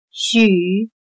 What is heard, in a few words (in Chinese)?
"许", chino